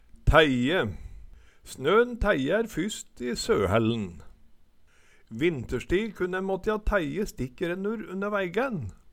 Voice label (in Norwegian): tæie, Numedalsmål